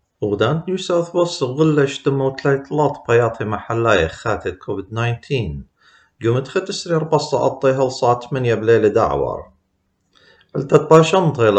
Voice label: assyrian; covid-19 coronavirus; covid-19 daily key points